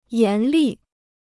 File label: "严厉", Chinese